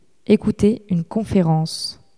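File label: "ecouter une conference", French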